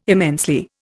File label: "immensely", Indian